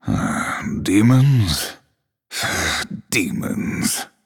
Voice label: Male Night Elf Thinking